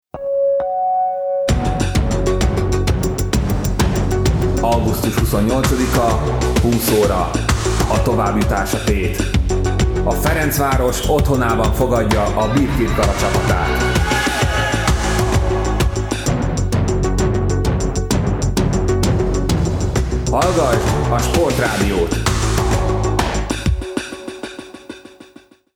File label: spot, sportradio